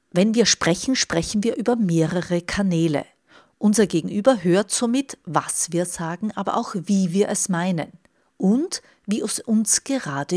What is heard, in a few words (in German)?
streitstimmen